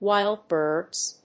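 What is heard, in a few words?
"Wild birds", English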